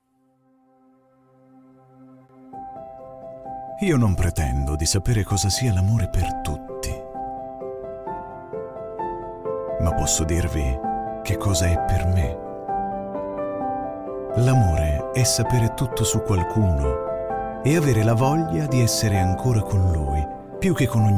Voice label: Italian voiceover Emotional